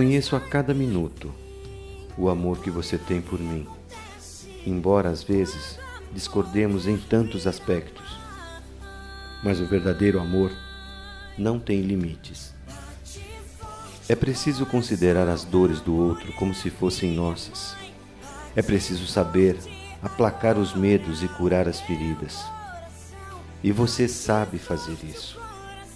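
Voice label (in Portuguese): FILHO PARA MAE RECONCILIACAO male, telemensagem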